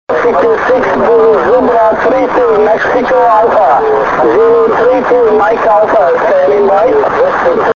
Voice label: on 6m SSB